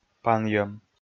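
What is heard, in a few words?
"панйӧм", Komi, male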